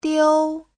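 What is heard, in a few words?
"diū", Mandarin Chinese; 丢; mất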